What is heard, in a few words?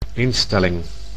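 "instelling", Dutch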